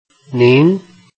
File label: "nin2", Chinese